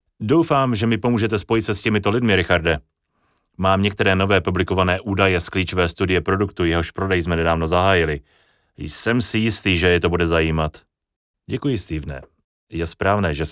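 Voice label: Czech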